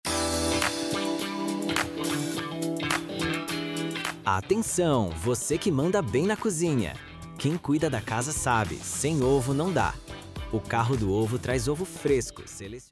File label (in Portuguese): Omelete Perfeita, vinheta comercial carro do ovo